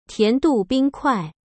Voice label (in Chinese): "甜度・冰塊" Chinese